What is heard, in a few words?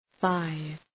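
"five", English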